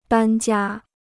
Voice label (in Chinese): "搬家", Chinese